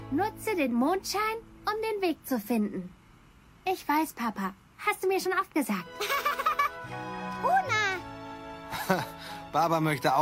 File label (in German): Zeichentrick